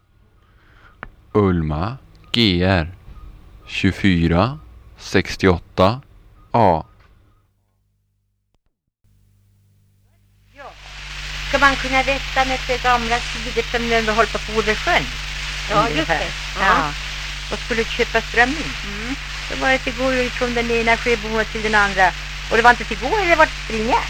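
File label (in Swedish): Älvkarleby Uppland, dialekter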